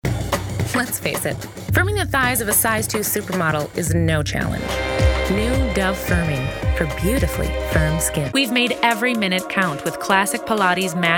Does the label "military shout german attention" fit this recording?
No